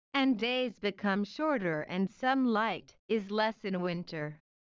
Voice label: low